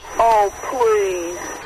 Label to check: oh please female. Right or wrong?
Right